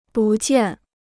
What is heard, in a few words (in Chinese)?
"不见", Chinese